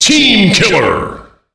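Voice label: "teamkiller", male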